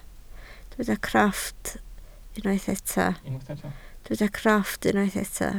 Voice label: "crofft", Welsh